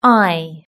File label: "eye", british english